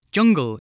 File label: "jungle", voice